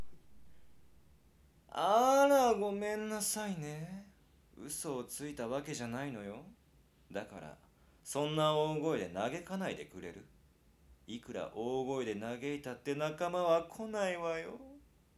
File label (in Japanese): オネエ